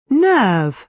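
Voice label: "nerve", British English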